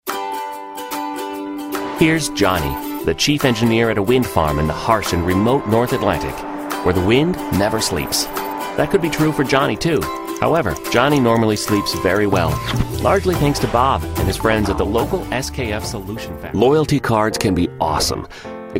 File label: Male Voice Over Talents Explainer